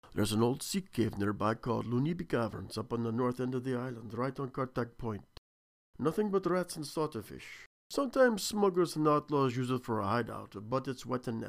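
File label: breton, spain heavy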